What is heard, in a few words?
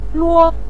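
"ruo", Mandarin Chinese, neutral tone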